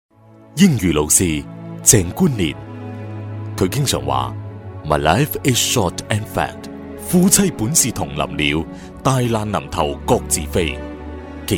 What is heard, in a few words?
cantonese chinese voiceover locutor chino cantones